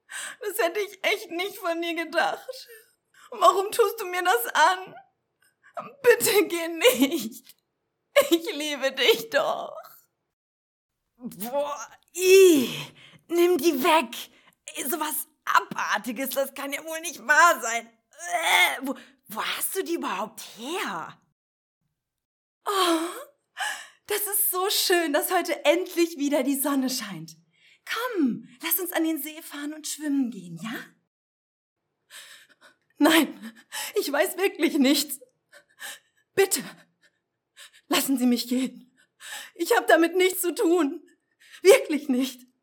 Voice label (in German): Emotionen